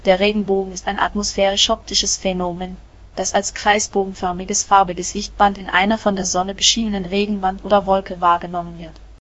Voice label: speaker, de_DE; piper voices